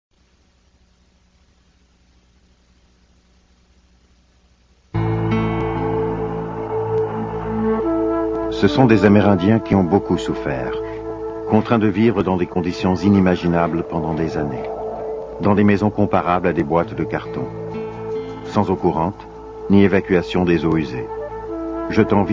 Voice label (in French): Narration